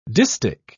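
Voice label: "distich", British English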